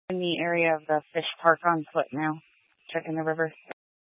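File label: Incident Tactical 1, Scanner audio recording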